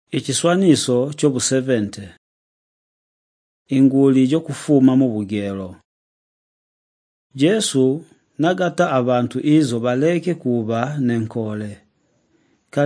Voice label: the living christ tonga shanjo, drama; chitonga; jesu mumi